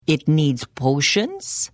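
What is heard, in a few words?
Service, Khajiit female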